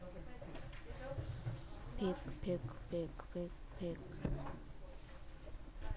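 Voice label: "pick", spoken word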